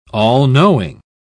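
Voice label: "all-knowing", English, British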